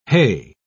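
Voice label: "heigh", British English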